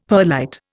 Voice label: "perlite", Indian; Dictionary